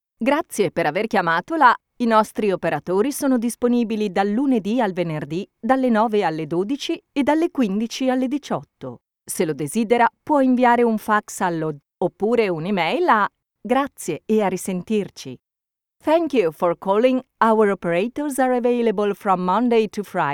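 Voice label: italian interactive voice response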